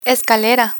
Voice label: "escalera", Spanish